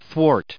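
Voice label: "thwart", English, us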